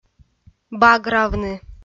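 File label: "багравны", Komi, female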